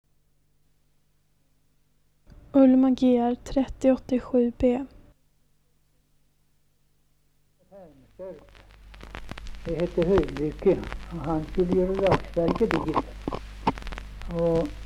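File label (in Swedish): Tidersrum Östergötland, dialekter; Folkminnen; Svenska sagor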